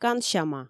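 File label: "кансяма", Komi, female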